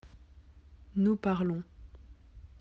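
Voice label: "parler", French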